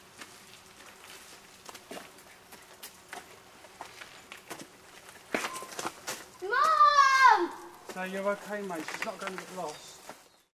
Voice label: bridge family echo